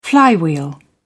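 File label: "flywheel", British English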